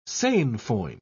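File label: "sainfoin", British English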